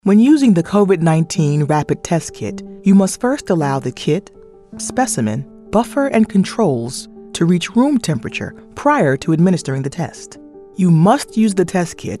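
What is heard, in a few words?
40s Female African American Narration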